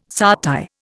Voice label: "satay", Indian